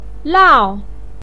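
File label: "lao" fourth tone, chinese, voice pinyin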